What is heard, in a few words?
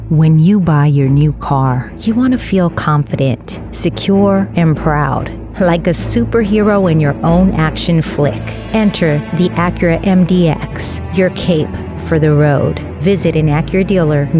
Acura Mix w Music, Acura Auto Commercial Ad/Promo, Conversational, Friendly, relatable Female Voice; Television Spots